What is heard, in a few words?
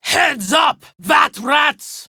Enemy Spotted Female